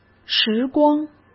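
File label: "时光", Mandarin Chinese; chinese-flashcards; hsk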